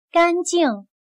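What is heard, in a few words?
"干净", Chinese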